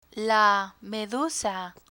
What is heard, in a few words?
"medusa", Spanish; learn spanish